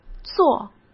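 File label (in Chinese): "作", Mandarin Chinese; chinese-flashcards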